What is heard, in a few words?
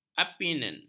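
"alpine", Malay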